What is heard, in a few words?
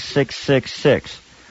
6 6 6 male; numbers and letters